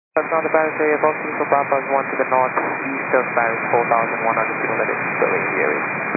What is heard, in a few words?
122.925 MHz; scanner radio